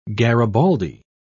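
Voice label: "garibaldi", British English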